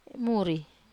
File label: "muri", Wamesa